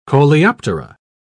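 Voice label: "coleoptera", British English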